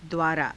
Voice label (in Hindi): "dvaaraa", Hindi